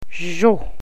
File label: Snow, Gwich'in; zhoh